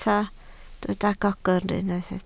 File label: "gogr", Welsh